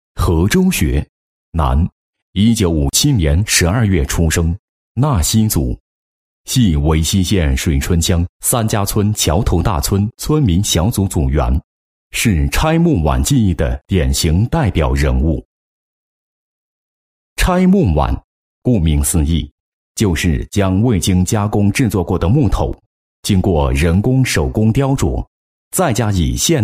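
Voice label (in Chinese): 男 人物专题, 拆木碗传承人解说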